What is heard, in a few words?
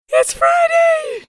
saying its friday funny